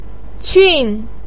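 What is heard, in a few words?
"qun", Mandarin Chinese, fourth tone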